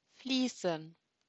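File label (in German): "fließen", German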